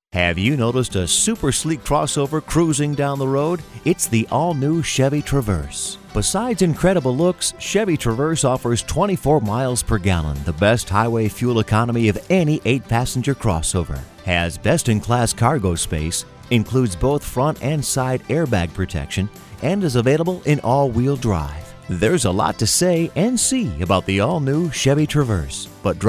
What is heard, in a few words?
voice demo